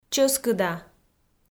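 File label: "чӧскыда", Komi, female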